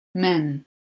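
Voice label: "men", Proto-Indo-European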